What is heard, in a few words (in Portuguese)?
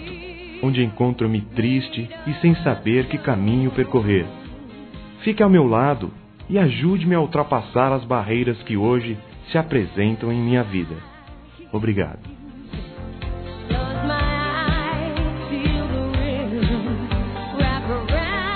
PRECISO DA SUA AJUDA, Telemensagens, Amizade, Masc